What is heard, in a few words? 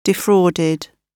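"defrauded", British English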